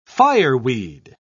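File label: "fireweed", British English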